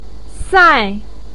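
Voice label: "sai", Chinese pinyin, fourth tone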